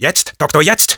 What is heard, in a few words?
sniper activate charge, de; Jetzt, Doktor, jetzt!